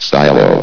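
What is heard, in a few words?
"silo", male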